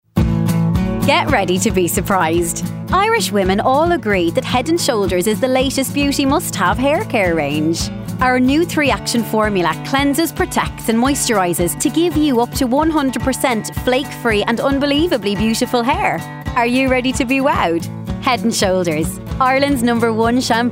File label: Commercial; Head and Shoulders; Commercial Showreel